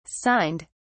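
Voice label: "signed", English, British English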